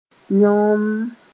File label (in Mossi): "yõnnn", moore